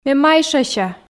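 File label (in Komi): ме майшася, Komi, female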